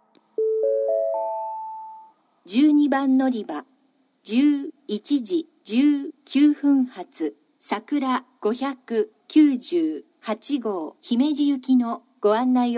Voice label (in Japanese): 12番のりば案内放送; 12番のりば案内放送（さくら598号 姫路行き）; 新鳥栖; 2013年の8月16〜18日にかけての3日間で設定されました。